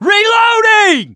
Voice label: reload intense, voice, mechanic

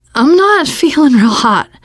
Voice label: going to die, teengirl, voice

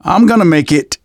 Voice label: getting revived, manager, survivor, voice; Left 4 Dead 2; left4dead2 dlc2

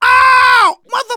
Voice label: friendly fire, manager, survivor, voice; Left 4 Dead 2; left4dead2 dlc3

